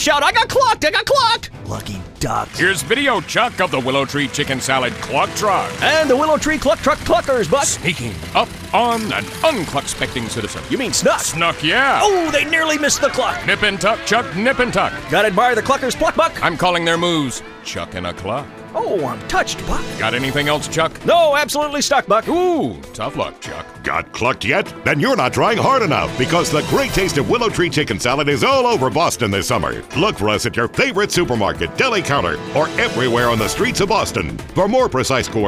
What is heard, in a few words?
cluckin poetry